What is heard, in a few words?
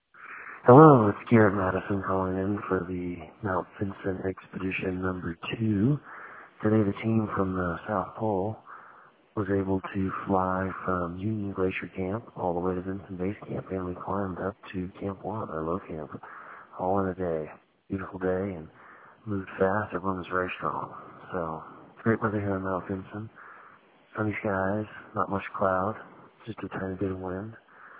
voicemail